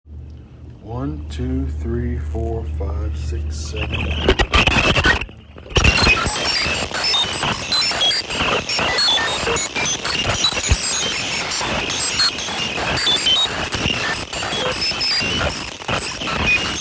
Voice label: Test voice box on